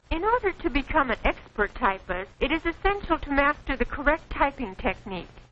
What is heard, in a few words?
correct technique, Quirky Female Typing Instructor; VOCALS and SPOKEN WORD